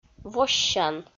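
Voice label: "воссян", Komi, female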